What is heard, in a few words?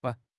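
"ban1", Mandarin Chinese; HSK3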